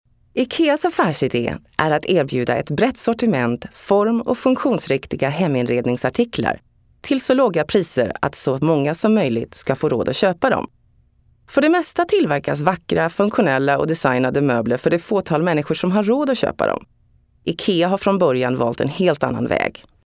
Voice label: swedish voiceover locutora sueca

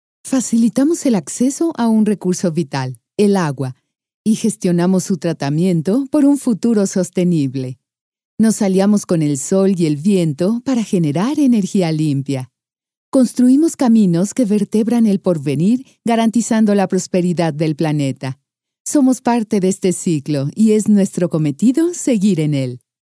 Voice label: locutora mexicana mexican voiceover

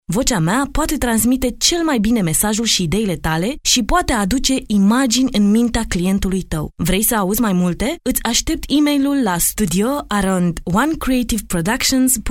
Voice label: female romanian voiceovers

